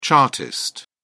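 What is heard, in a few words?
"chartist", British English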